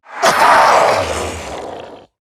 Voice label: Death, en; Zariman Wraith

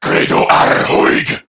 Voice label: Reload, Male

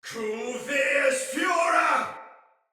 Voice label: Attack Heavy Soldier Male

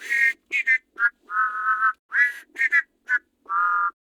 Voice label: Patrol, Male; Warframe; Grineer Male B; Battle Barks

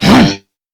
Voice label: Threatened Crewmen, Dialog, Barks, Battle; Warframe; CorpusCrewmen; CrewmanA

